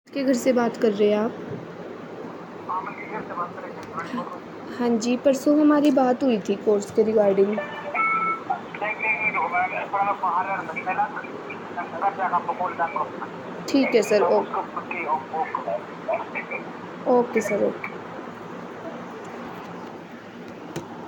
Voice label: call recording